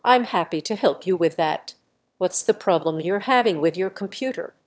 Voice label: emotion; S2S-Bench; LLaMA omni